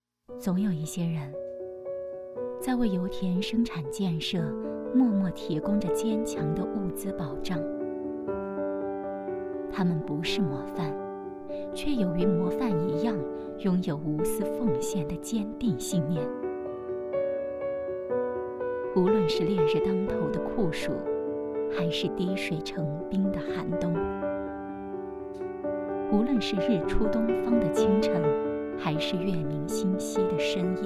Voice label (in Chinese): 女 旁白 沉稳